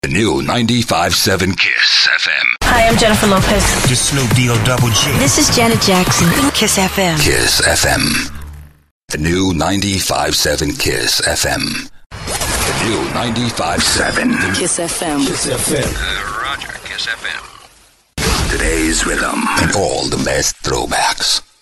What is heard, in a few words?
Radio Image Long; 95.7 KissFM